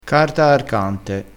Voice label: "carta arcante", crespinese

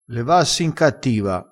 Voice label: "levassi in cattiva", crespinese

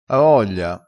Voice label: "ha voglia", crespinese

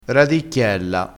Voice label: "radicchiella", crespinese, vocabolario dialettale

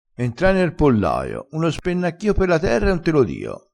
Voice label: "spennacchio", crespinese

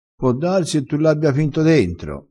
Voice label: "si vede", crespinese

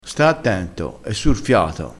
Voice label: "fiato", crespinese

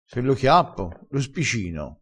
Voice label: "spicina", crespinese

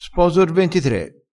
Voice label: "sposa", crespinese

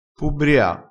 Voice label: "pubbria", dialettale, crespinese

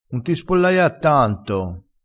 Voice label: "spollaiassi", vocabolario dialettale crespinese

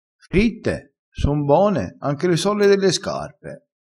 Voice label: "fritte sole", crespinese

